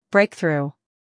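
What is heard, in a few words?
"humor", British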